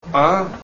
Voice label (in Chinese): 疑惑-"啊？"